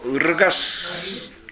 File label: "urugasu", minamiyamagata